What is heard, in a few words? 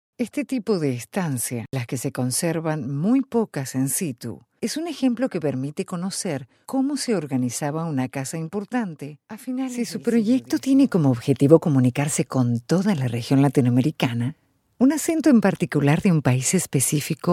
Explainer Video Spanish Voice Talent